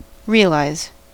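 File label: "realise", American English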